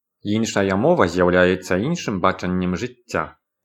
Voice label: belarusian